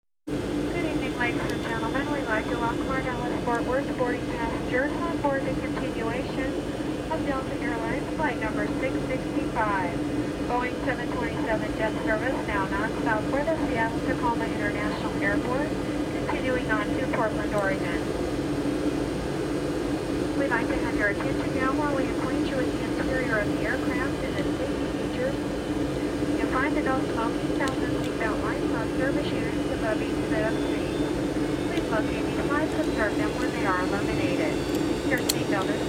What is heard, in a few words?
Flight Attendance Giving Flight Details